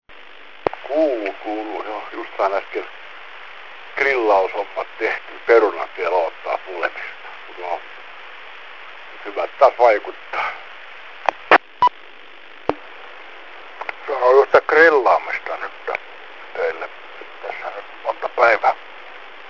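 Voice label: cb finnish hams on barbequeing; cb finnsh hams on barbequeing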